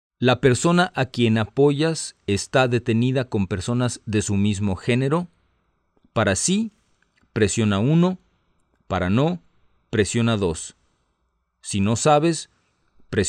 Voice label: Spanish, hotline